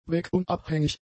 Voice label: "wegunabhängig", German; adjectives